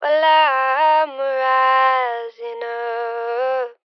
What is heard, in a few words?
Phrase Dry, 75 BPM F Min, Vocals; Rising Up; Cymatics - Eternity Sample Pack; Phrases